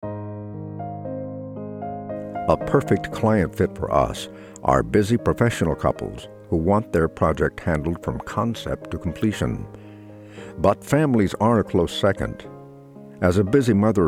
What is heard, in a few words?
male Design company promo; english